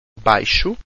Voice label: "baixo", Portuguese